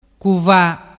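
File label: "cuva", Vernazzese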